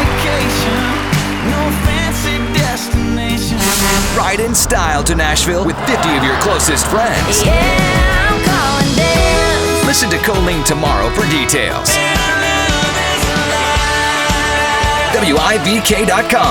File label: radio country, radio imaging